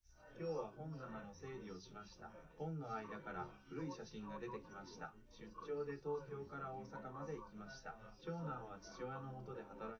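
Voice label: male, live recording; SiSEC11; underdetermined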